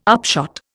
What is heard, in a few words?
"upshot", Indian